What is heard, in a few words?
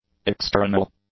"extrarenal", english; adjectives